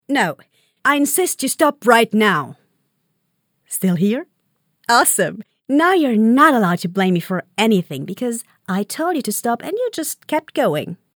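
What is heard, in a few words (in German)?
British English, Variabel; Fremdtake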